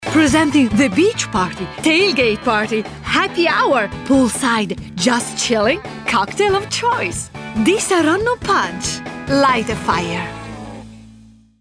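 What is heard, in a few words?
Italian female voice over, English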